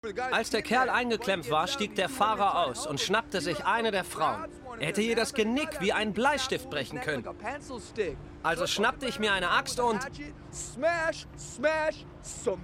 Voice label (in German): Overvoice crazy Typ